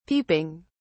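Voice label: "peeping", English, British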